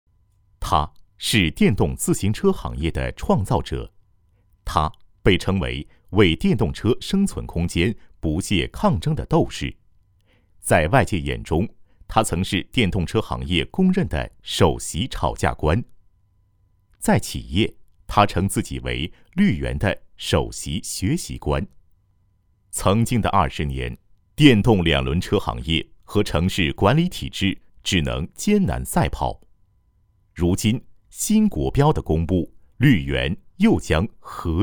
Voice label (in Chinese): 男 专题 企业专访; 绿源